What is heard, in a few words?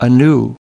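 "anew", US English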